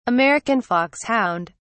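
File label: "american foxhound", English, British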